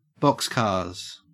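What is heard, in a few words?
"boxcars", Australian English; pronunciations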